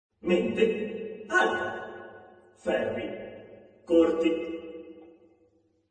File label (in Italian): Voce fuori campo